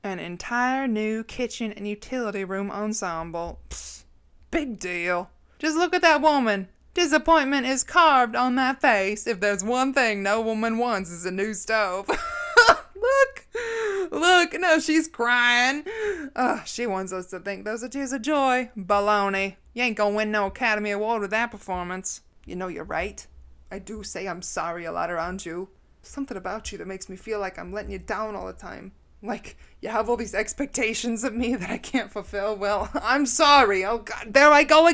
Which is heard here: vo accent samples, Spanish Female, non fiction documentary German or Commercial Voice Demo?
vo accent samples